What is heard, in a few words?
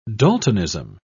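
"daltonism", British English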